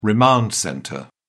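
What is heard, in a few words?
"remand centre", British English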